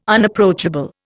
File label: "Unapproachable", Indian